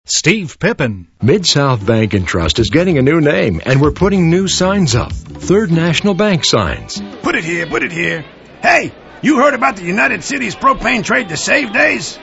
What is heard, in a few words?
male; Spotland Productions